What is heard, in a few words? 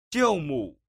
"jiu mu", tiếng trung